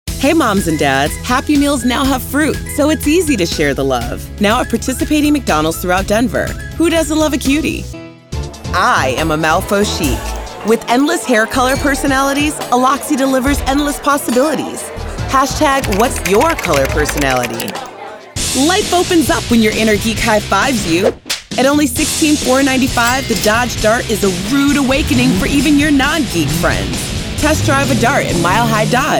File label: Voice Over Commercial